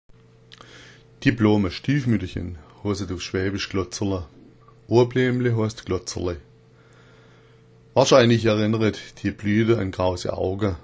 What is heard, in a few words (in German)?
glotzerla, schwaebisch